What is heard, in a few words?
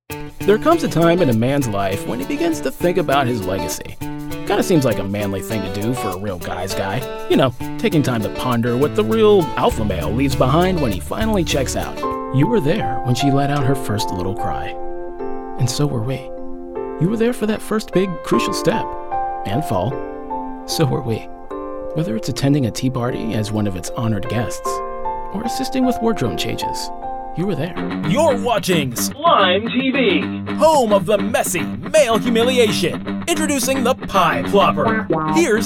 Commercial